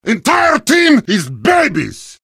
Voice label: heavy taunts; video games; source engine; tf